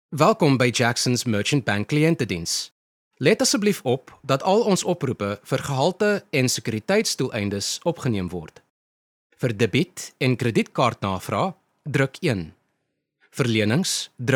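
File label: Afrikaans, male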